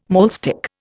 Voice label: "maulstick", Indian

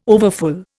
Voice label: "overfull", Indian

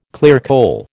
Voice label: "clearcole", American

